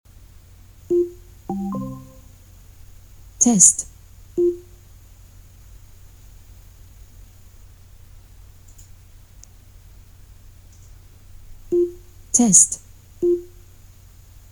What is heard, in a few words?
echo